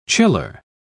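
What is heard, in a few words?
"chiller", British English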